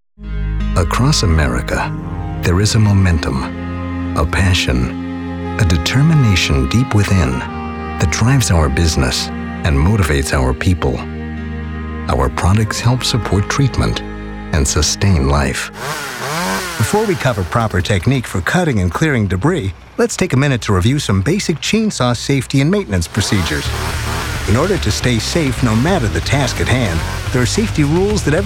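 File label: male English US narration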